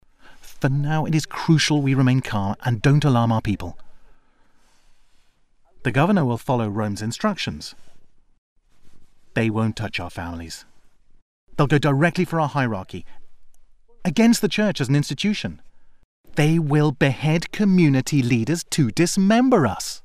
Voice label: locutor ingles english voiceover, locutor inglés